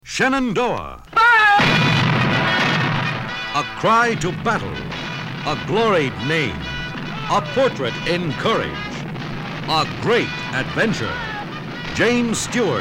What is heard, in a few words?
Radio Spot; Shenandoah